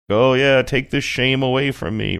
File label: funny